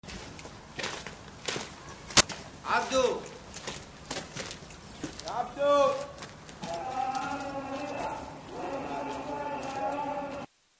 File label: men calling; egypt